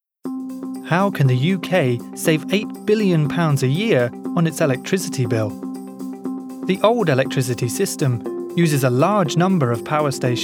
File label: male british english energy comercial